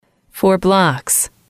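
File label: "for blocks", English, female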